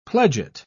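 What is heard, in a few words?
"pledget", British English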